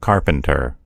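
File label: "carpenter", American English; gcompris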